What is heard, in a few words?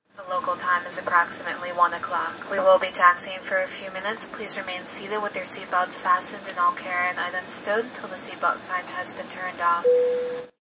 Stewardess After Landing, Stewardess makes announcements after airliner lands; Airplane Sound Effects; Sound Effects:Vehicles